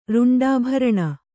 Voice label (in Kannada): "ರುಂಡಾಭರಣ", Kannada; ruṇḍābharaṇa; noun; Śiva, wearing this.